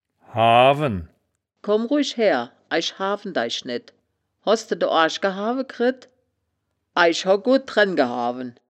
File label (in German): "hawen", wittlicher woerterbuch; das wittlicher woerterbuch; woerterbuch vertonung